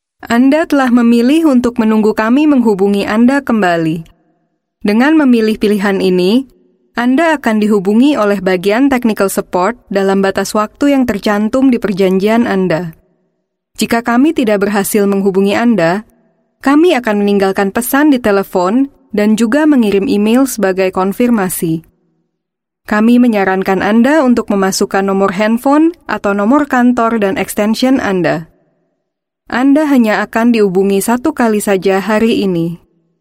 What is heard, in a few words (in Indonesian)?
Bahasa Indonesia Female